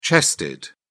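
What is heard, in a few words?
"chested", British English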